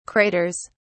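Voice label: "craters", English, British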